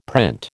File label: "print", American